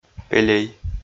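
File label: "пелей", Komi, male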